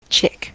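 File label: "chick", US English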